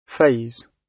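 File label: "phase", British English; Time, history; Thời gian, lịch sử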